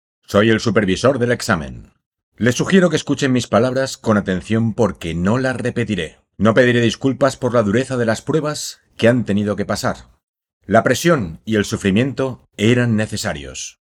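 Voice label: movie trailers, Español, Hombre; Trailers de películas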